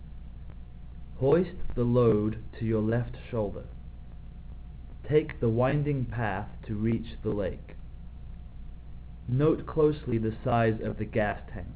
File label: male